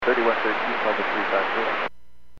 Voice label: Aviation 121.5 Emergency